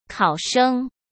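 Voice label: "考生", Mandarin Chinese; hsk; chinese-flashcards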